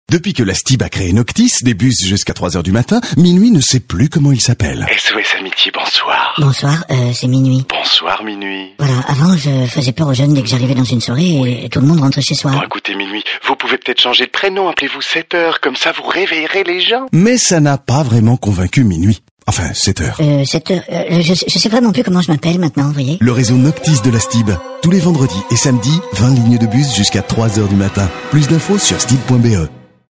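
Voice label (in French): Belgian French, male, commercial; voice demos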